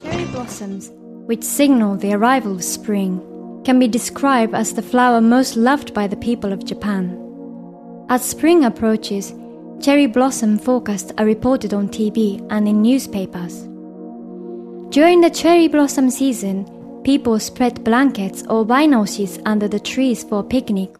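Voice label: Japanese, English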